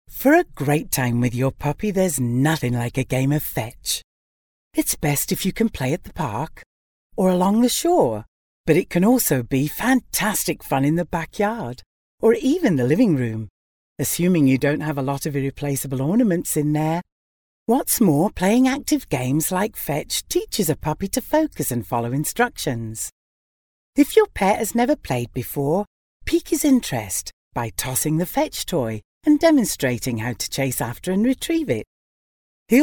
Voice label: audiobook; The joy of playing with your dog